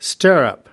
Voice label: "stirrup", us

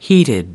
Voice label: "heated", us

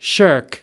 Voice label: "shirk", us

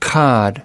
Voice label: "cod", us

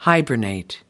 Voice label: "hibernate", us

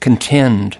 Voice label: "contend", us

